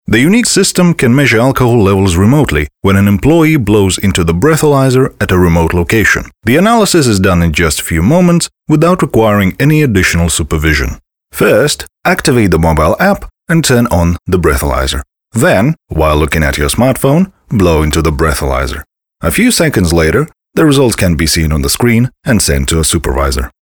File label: ENG VO